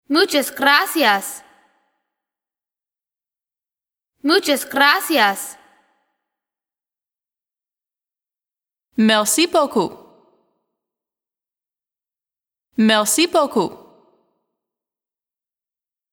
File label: Many Thanks Pronunciation